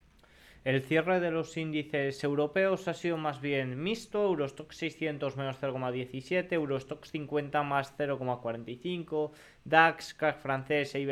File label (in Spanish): radio; sigue la correcion en los principales indices americanos